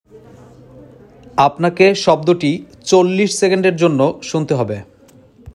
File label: male sound given